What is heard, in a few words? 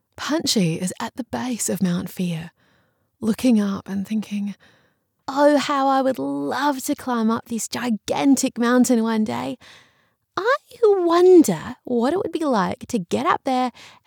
english australian audiobooks